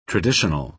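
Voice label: "traditional", American English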